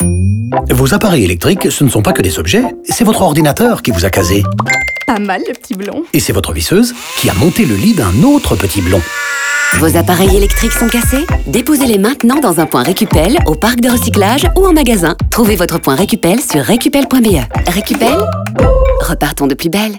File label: radio, fr, alt music intro; recupel